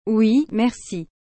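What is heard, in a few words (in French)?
"Oui merci", French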